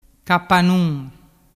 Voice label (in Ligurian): "capanun", dialettu